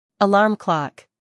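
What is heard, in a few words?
"alarm clock", English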